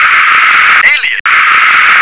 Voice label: "alien", English

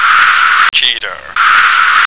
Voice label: "cheetah", American

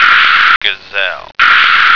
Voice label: "gazelle", American

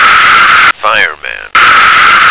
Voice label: "fireman", American

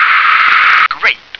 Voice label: "grape", English

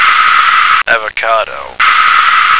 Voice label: "avocado", American

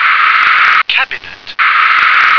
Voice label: "cabinet", English

